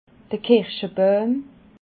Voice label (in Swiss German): "kirschbauim", alsace